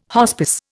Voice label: "hospice", Indian

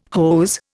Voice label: "hose", Indian

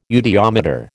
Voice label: "eudiometer", American

